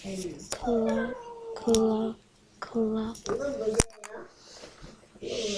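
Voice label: "clock", spoken word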